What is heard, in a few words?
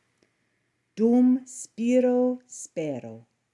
dum spiro, recitations; Ecclesiastical Pronunciation; Dum spiro, spero; While I breathe, I hope